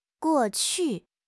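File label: "guo4 qu4", Mandarin Chinese; HSK3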